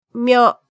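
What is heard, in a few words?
"myo", Japanese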